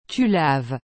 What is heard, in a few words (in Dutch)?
"tu laves", frans; laver